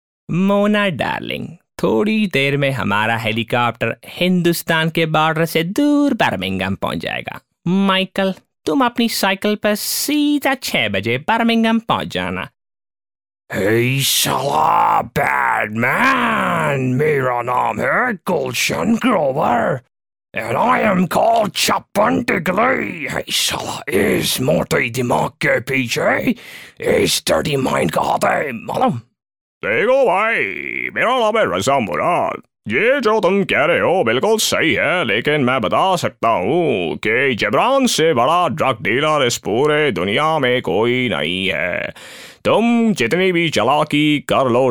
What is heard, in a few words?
mimicry samples, male voice